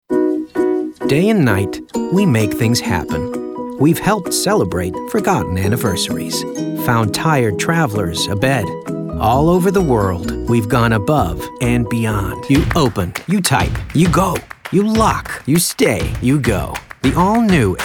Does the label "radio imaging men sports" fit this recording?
No